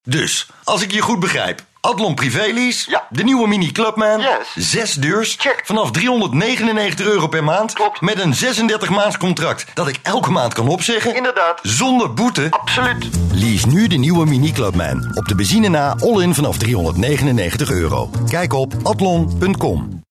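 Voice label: Dutch, male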